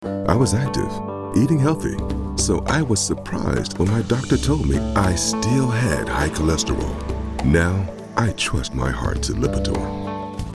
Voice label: Compasionate; Lipator

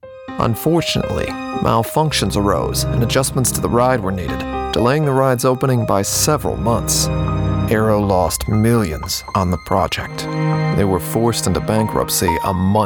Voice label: Narration

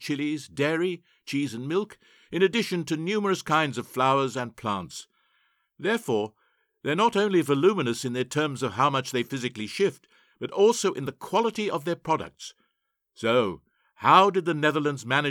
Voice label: DUTCH

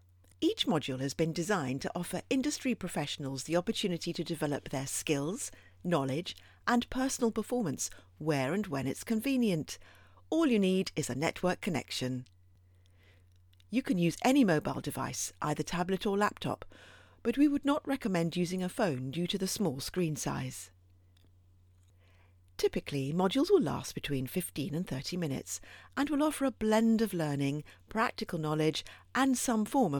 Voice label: Digital Technology Training welcome

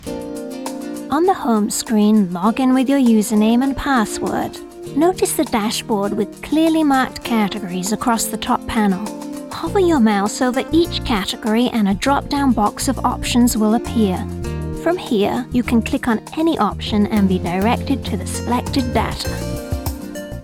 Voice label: British Voice Over Lady Business Genre

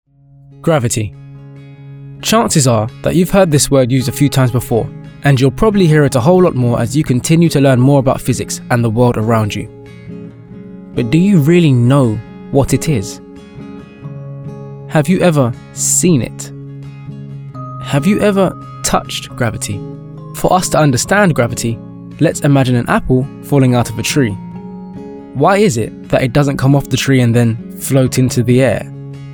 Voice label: E Learning

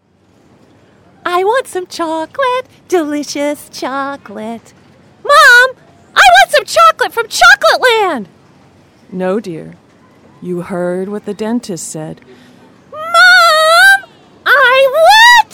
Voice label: Mom and Daughter mix

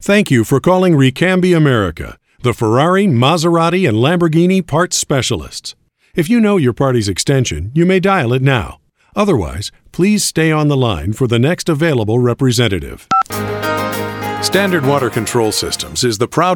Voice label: Scot American-English IVR

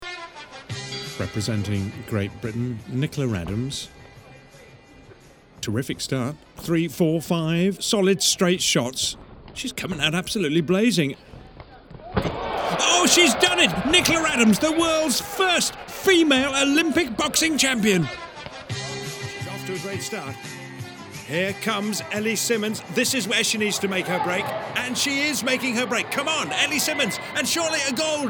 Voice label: sports commentary montage

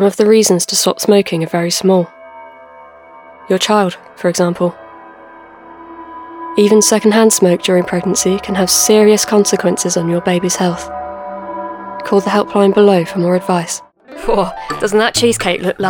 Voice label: Commercial and Promo Reel

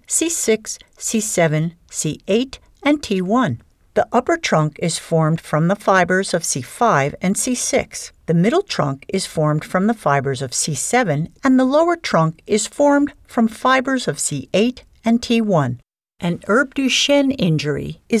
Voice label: eLearning Brachial Plexus

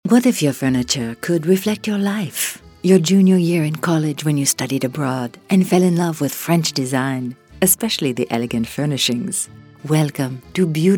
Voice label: Accents

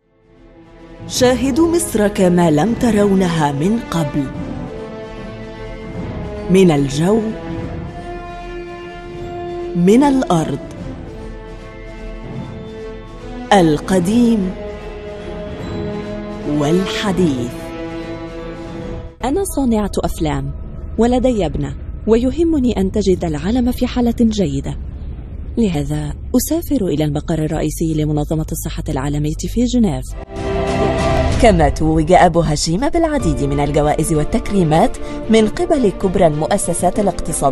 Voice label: Arabic

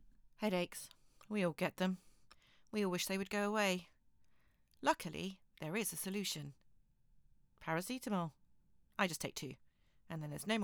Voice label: Commercials Voice Reel

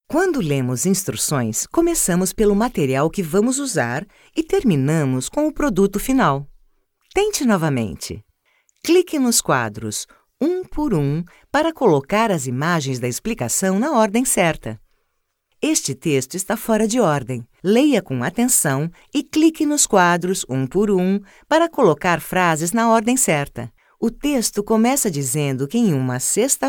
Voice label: e Learning

